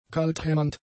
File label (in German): "kalthämmernd", German; adjectives